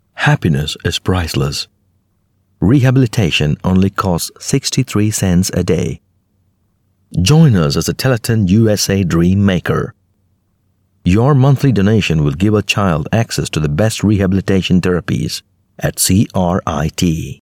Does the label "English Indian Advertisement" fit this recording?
Yes